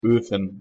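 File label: pronunciation "ofen", German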